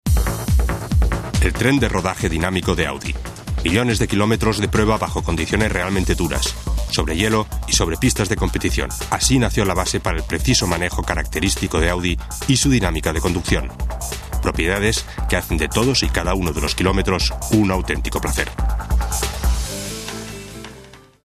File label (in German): werbung, spanisch